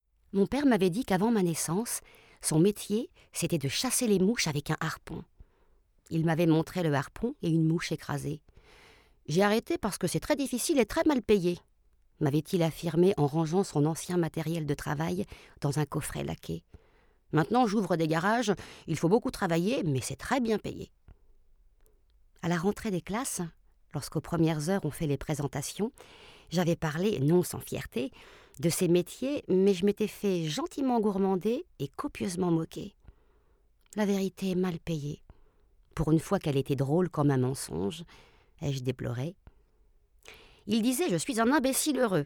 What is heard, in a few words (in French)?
Livre VO